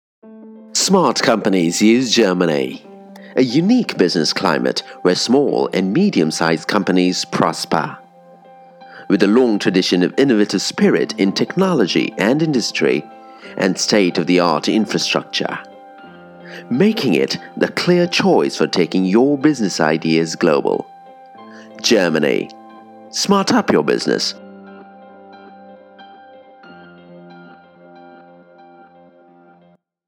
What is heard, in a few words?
British Tone